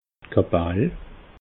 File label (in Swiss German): "kapall", alsace